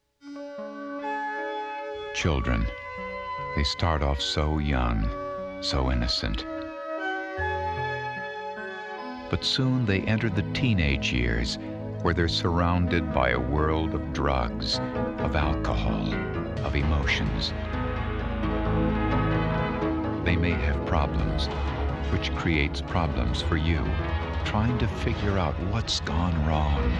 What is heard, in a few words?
Radio; CompCare